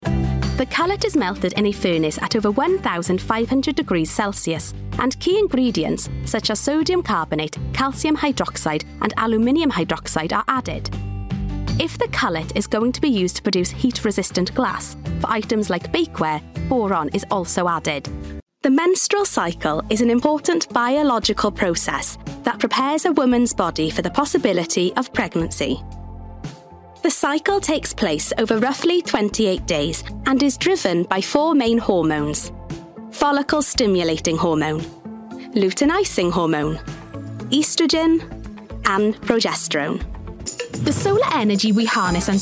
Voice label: english british explainer videos